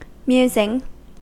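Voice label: "musing", US English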